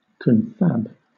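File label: "confab", British English; pronunciations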